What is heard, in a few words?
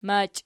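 "machi", nominative, Archi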